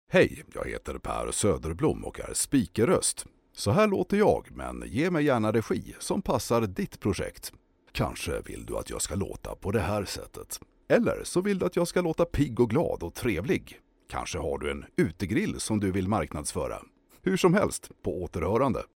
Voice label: locutor sueco swedish voiceover